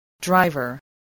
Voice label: "driver", English

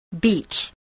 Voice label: "beach", English

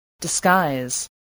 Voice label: "disguise", spelling

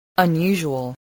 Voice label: "unusual", English

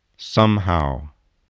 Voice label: "somehow", English voice

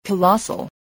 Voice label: "colossal", voice

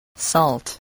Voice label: "salt", English spelling

